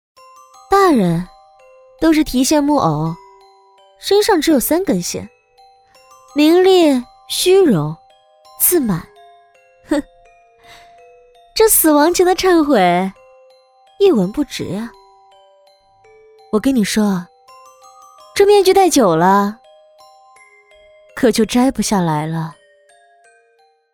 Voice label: 坏人女

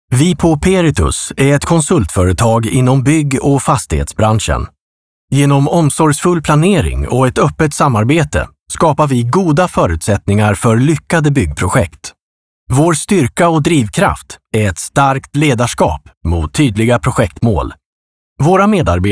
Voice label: 瑞典语男

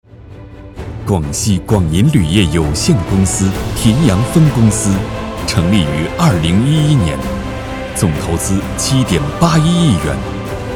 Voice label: 企业宣传样音

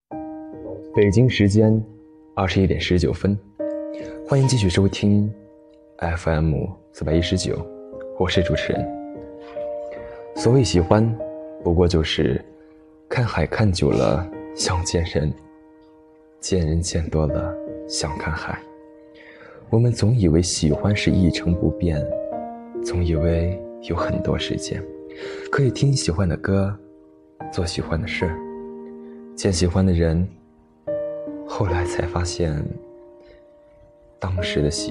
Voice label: 电台

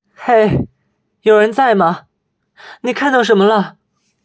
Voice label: 配音

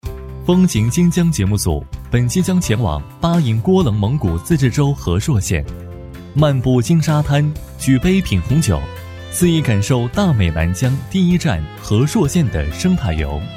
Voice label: 栏目预告; 疯行新疆

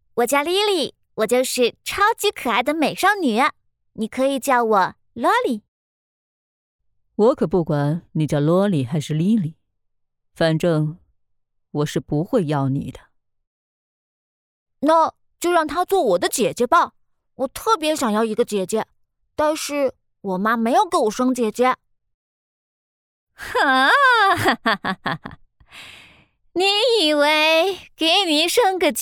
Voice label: 模仿各种角色音

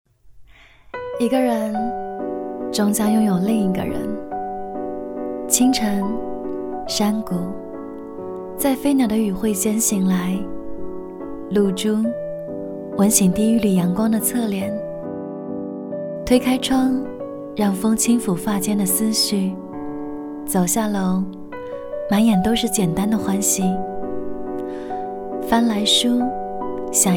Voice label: 台湾腔 微微台湾腔 旁白 清新

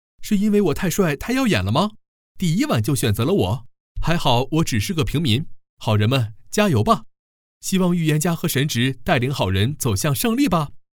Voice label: 年轻 音色

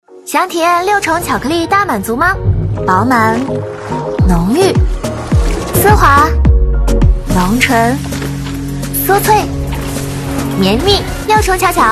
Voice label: 自然 少女; 巧乐兹

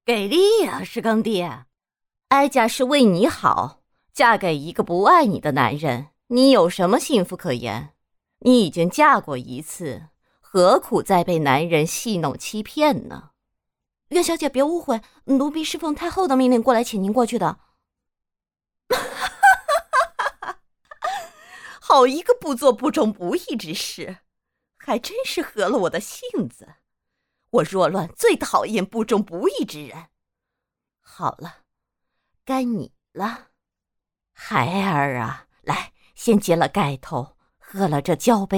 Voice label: 角色音 干音

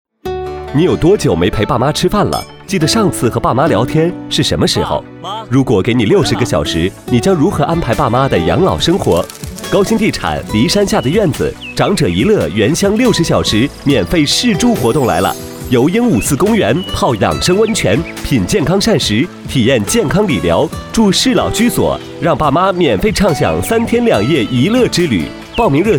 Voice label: 温暖磁性宜居住宅

